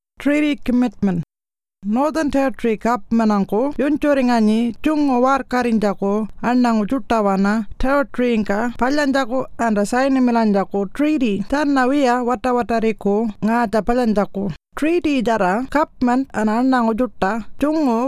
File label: Pintupi Luritja; Treaty Commitment